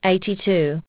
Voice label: aus, aussie prompts